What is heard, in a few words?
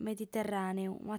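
"mediterràneu" Sardinian, female; M'abìgio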